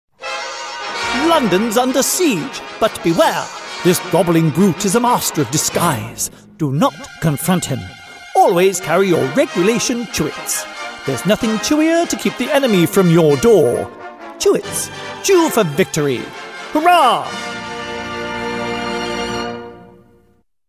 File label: advert; chewits